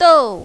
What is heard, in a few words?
"dou4", mandarin, female; 逗